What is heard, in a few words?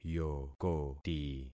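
"yokoti", concatenated syllables; Affixing experiment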